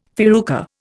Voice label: "farruca", Indian

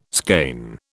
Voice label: "skein", British

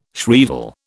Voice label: "shrieval", British

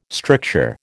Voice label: "stricture", American

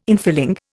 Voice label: "infilling", Indian

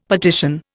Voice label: "petition", Indian; Dictionary

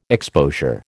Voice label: "exposure", American

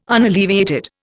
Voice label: "unalleviated", Indian

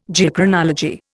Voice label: "Geochronology", Indian

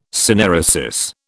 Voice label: "synaeresis", British

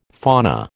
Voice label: "fauna", American

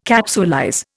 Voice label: "capsulize", Indian

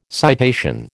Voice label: "citation", American

